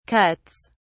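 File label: "cuts", British English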